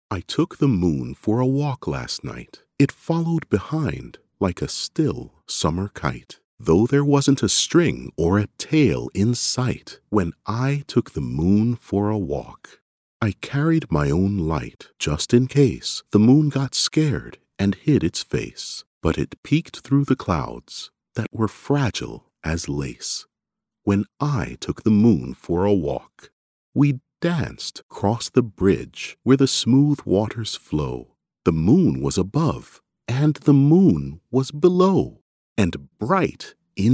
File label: English Canadian audiobooks